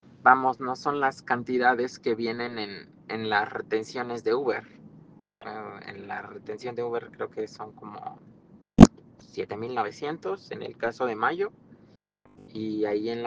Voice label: whatsapp ptt